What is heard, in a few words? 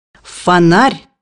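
"lantern", Russian; bukvar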